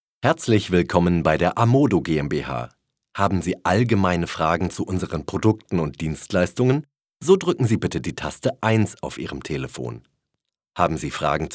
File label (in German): Ansage